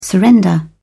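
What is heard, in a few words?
"surrender", British English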